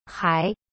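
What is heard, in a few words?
"hai", chinese; BCT Standard Course 2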